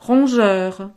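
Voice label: "rongeur", French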